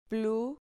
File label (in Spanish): "plu", Spanish syllable